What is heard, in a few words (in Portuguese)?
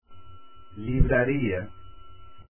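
"livraria" Portuguese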